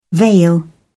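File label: "veil", British English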